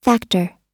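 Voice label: "factor", English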